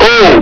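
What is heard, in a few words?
"oh!", male; hl2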